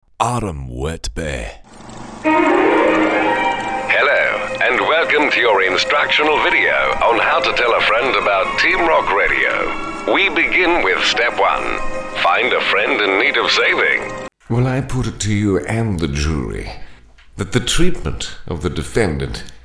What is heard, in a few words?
UK accents and characters